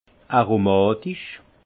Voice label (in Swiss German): "aromatich", alsace; vin; aromatique